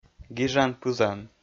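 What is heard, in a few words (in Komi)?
"гижан пызан", Komi, male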